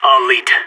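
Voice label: radio follow me, voice; agents; sas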